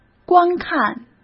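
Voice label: "观看", Mandarin Chinese; chinese flashcards; hsk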